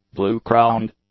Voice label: "Blue-crowned", English; nouns